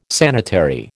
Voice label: "sanitary", American